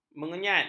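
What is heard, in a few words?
"menyengat", Malay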